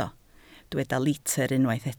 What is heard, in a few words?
"litr", Welsh